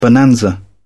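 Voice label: "bonanza", British English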